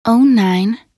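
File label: "09", English; minute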